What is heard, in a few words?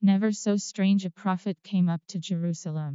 Creaky; arctic